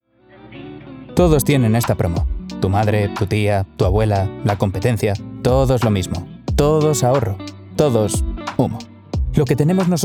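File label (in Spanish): generico España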